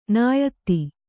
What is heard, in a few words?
"ನಿಯತಿ", Kannada